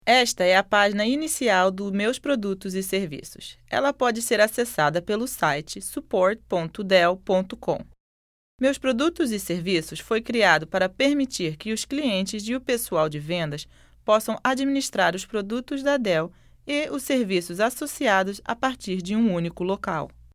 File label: Portuguese; delltranslations